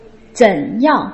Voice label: "zen3 yang4", Putonghua

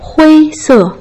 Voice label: "hui1 se4", Putonghua

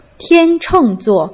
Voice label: "tian cheng zuo", Putonghua

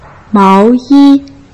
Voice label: "mao2 yi1", Putonghua

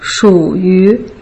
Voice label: "shu yu", Putonghua

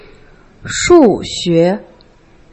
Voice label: "shu xue", Putonghua

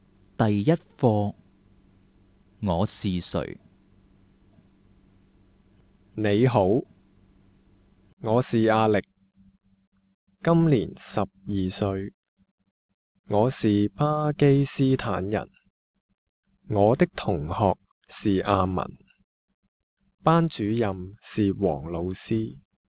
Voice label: self introduction, Cantonese

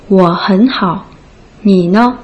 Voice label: "wo hen hao ni ne", Putonghua

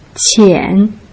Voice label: "qian3", Putonghua

